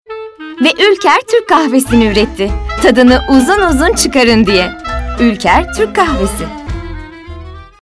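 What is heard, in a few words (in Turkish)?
enerjik tempolu, seslendirme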